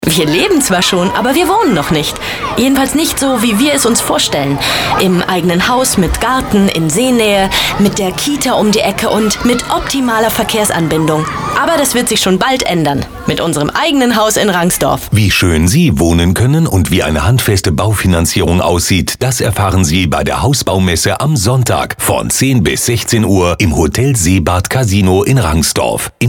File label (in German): Bauwesen Radiowerbung